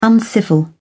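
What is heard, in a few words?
"uncivil", British English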